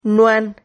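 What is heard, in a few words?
"nuan", Spanish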